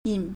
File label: "yin3", Chinese